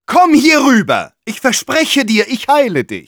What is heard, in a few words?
Medic taunts, de; "Komm hier rüber. Ich verspreche dir, ich heile dich!"